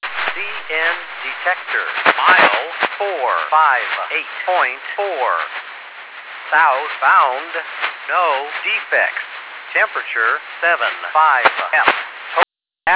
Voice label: defect detector; CN Superior Sub 458.4